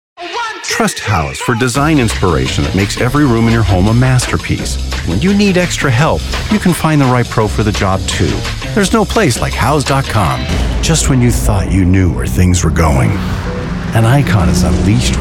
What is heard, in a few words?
Commercials